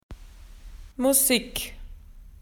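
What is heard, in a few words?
"music", Australian English; PictureDictionary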